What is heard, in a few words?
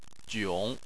"jiong3", Chinese